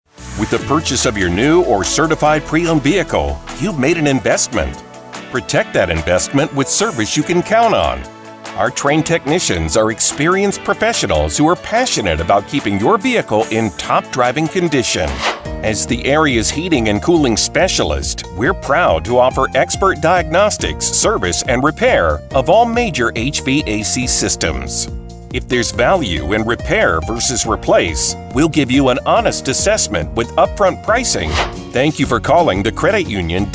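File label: male On-Hold Messages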